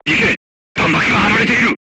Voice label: voice message art shooting warning, crew tanks japanese